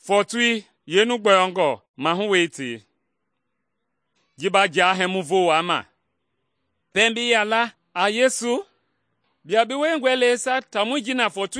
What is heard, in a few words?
mende bsl nt drama; good news mende kpa